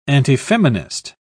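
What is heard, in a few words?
"antifeminist", British English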